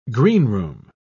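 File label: "greenroom", British English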